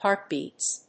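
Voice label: "heart beats", English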